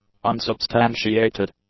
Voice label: "unsubstantiated", english; adjectives